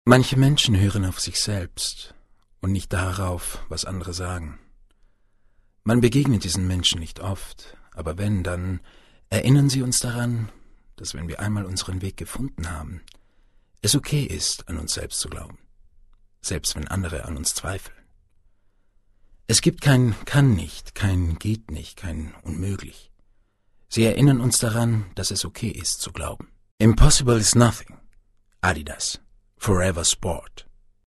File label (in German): Werbung